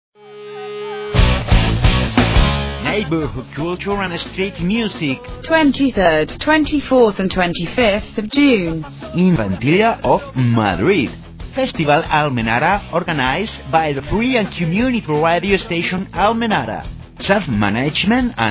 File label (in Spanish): promo ingles